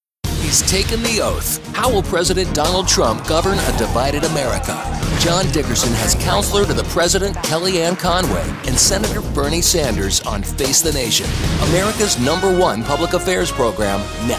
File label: Political TV; Divided America